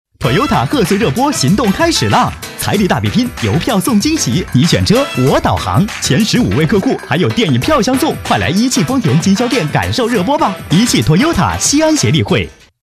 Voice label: 广告 汽车 活力, 男国; TOYOTA广告丰田汽车

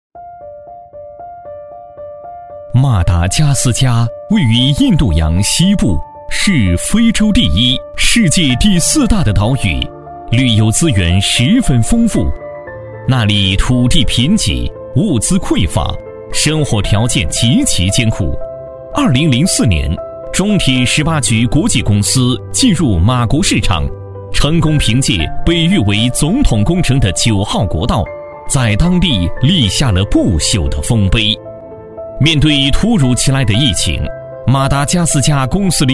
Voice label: 男国 专题 企业 舒缓; 马达加斯加

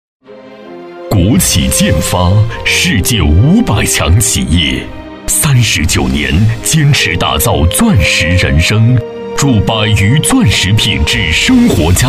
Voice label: 多媒体, 项目规划, 工程宣传, 浑厚

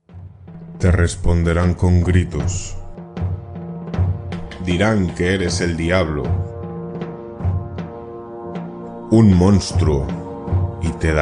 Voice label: 西班牙语男 外语 小语种 成熟

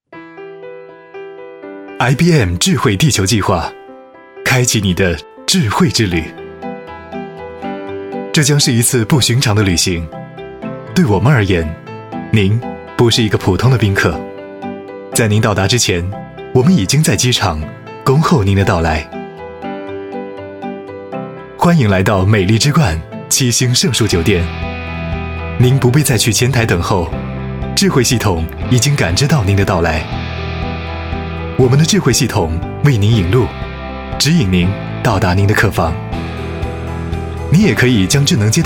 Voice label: 专题 产品 科技, 男国; IBM国际科技

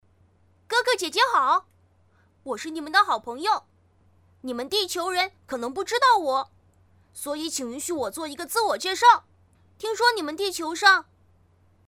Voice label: 动画 角色 活力正太

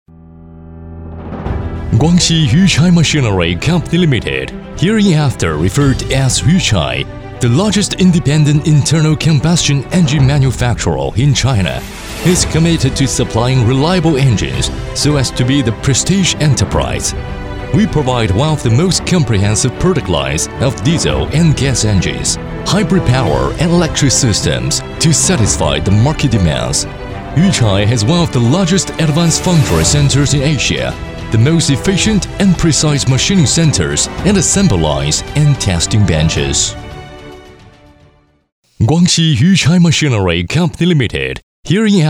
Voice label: 男英 外语 国内英语 激情大气企业宣传

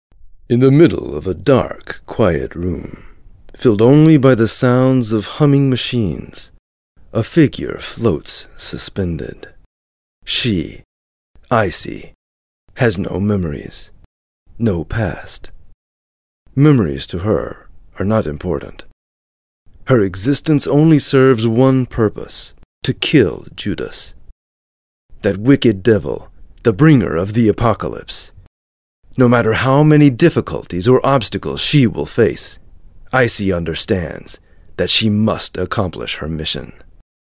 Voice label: 外籍男英 美式英语 叙述 低沉; 外语

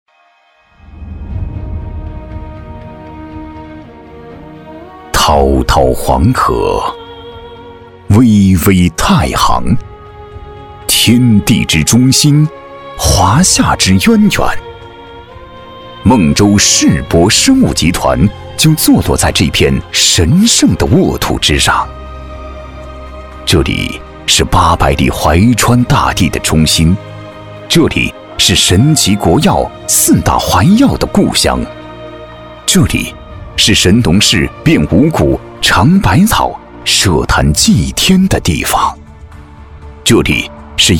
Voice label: 宣传片 企业 力度, 男国; 孟州世博生物集团

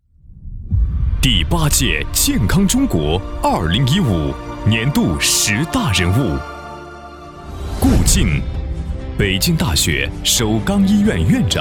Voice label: 男国 专题 颁奖; 健康中国年度人物

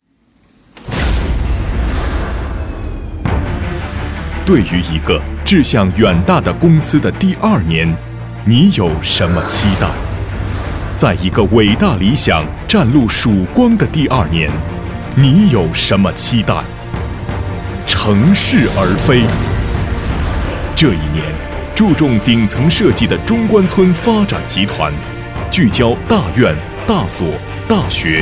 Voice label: 宣传片 企业 公司宣传片 激情, 男国

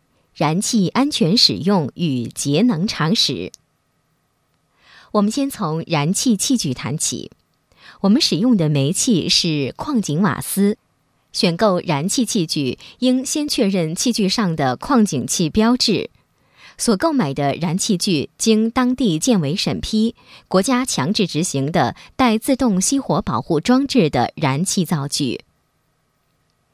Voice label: 产品演示 燃气, 女

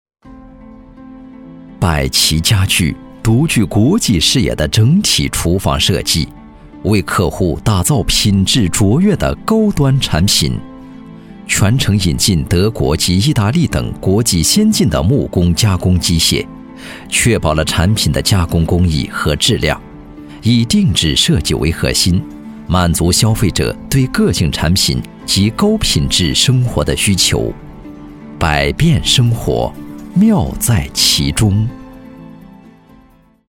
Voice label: 广告; 家居家电; 百琪家具

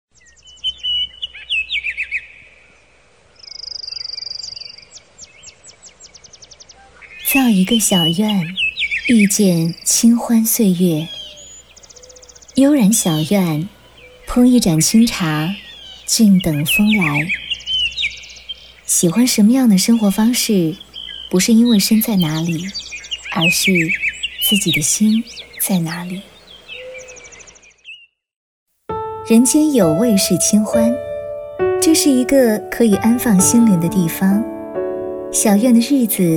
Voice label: 纪录片 人文历史; 清欢岁月

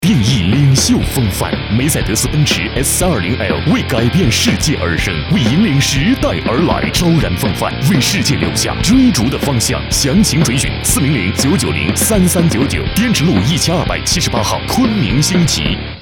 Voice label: 男国 广告 汽车 沉稳; 奔驰

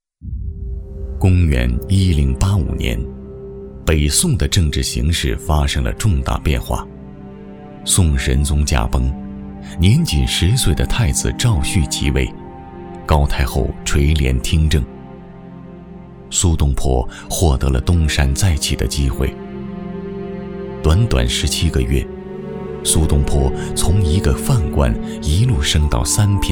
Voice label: 男 纪录片 厚重纪录片解说; 人物; 苏东坡

